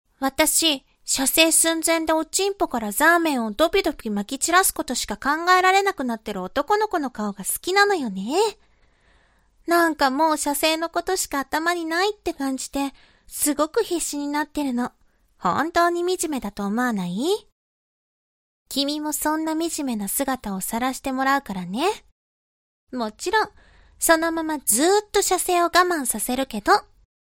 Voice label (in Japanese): 懲罰中音声, ASMR